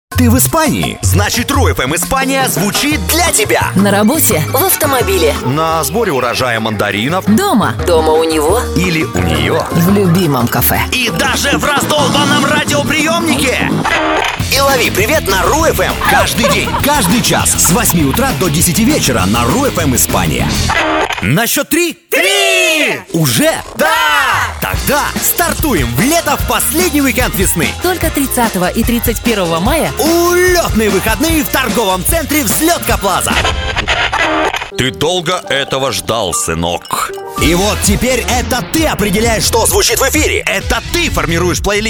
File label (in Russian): Мужской